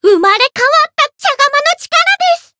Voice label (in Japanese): chant, jp